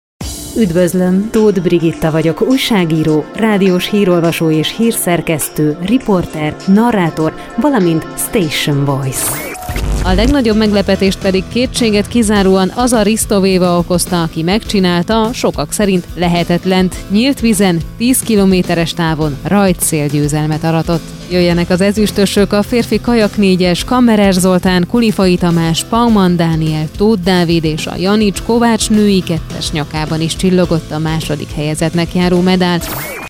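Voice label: Hungarian female; lektoring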